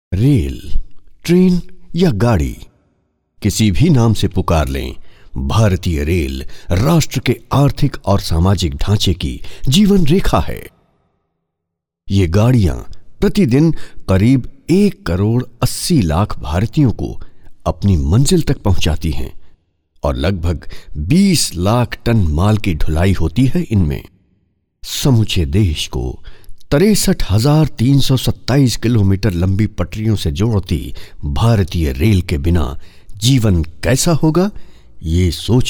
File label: male voice